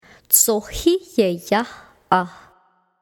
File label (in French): "Tsohïieyaah", Rat musqué